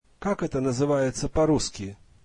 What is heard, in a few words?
russian What is that called in Russian